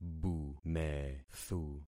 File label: "bumethu", concat syllables; Affixing experiment